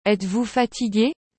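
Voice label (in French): "etes vous fatigue", French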